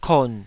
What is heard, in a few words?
"kon3", Taiwanese syllable